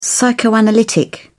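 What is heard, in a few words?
"psychoanalytic", British English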